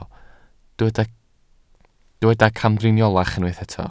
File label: "camdriniolach", Welsh